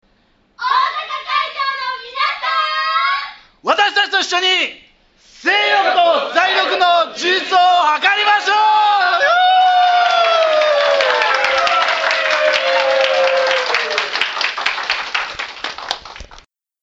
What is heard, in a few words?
tokyo voice; report